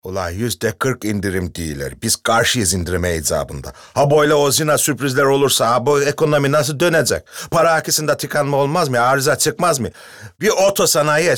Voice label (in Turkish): Karadeniz